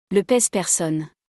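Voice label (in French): "Le pese personne", French; vocabulaire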